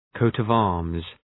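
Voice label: "coat of arms", English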